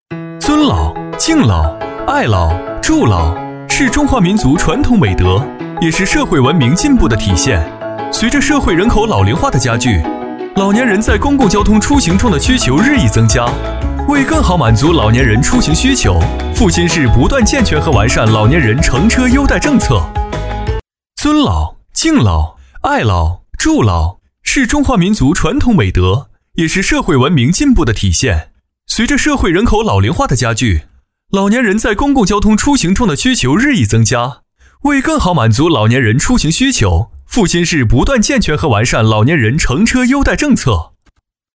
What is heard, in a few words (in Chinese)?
男 MG飞碟 科普解说; 男C16-MG飞碟-尊老敬老-科普解说; 男C16-年轻质感; 轻松活泼